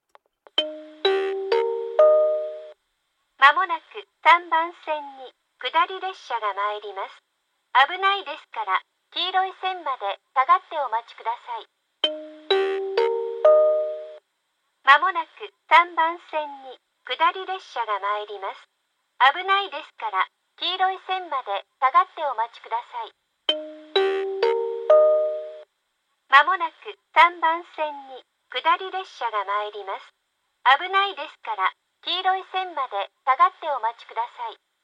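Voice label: platform 3 approaching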